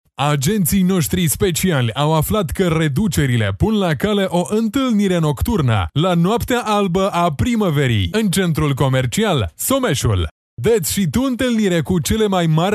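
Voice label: romanian male commercial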